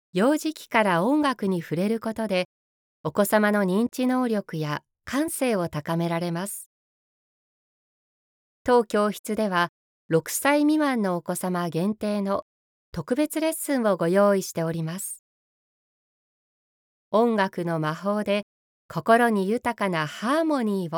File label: female